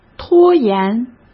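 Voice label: "拖延", Mandarin Chinese; hsk; chinese flashcards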